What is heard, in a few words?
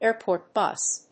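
"airport bus", English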